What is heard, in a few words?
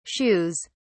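"shoes", British English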